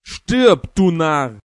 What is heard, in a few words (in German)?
Narr